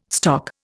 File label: "stock", Indian